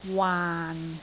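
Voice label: "waan6", Cantonese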